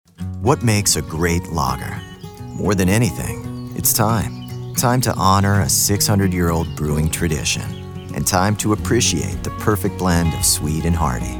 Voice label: Commercial